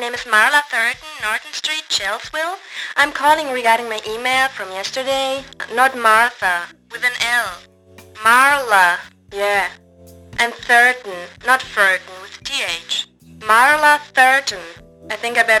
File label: snom klarvoice audio sample